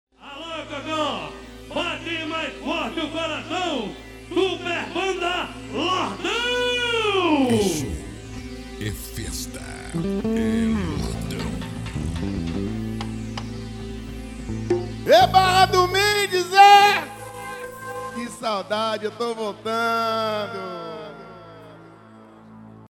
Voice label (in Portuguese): abertura locutor